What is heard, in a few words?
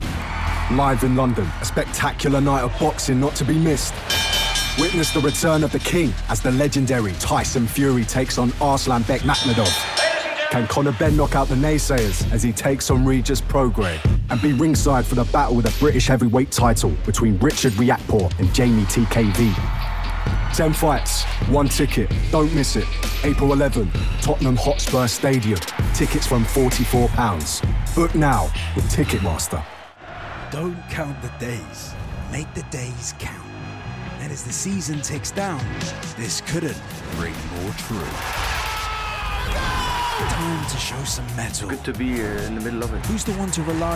Promo Reel